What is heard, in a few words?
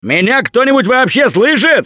lost call, russian; Gambler